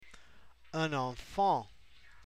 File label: "un enfant", French